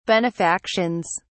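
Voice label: "benefactions", English, British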